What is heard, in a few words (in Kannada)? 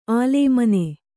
"ಅಲೇಮಾನಿ", kannada